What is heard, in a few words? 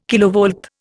"kilovolt", Indian